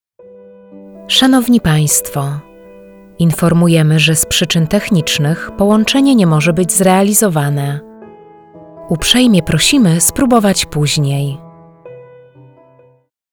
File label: Female Polish Voice IVR